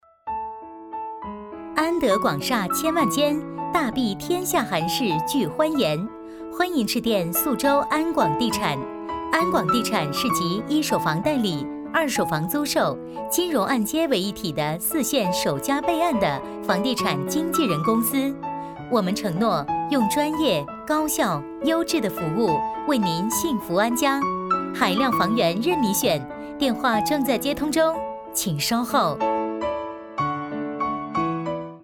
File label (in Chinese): 女 彩铃; 宿州安广地产